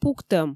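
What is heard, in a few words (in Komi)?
"пуктӧм", Komi, female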